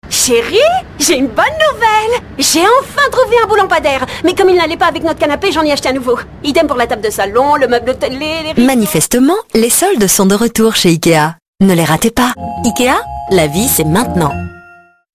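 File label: French, female, character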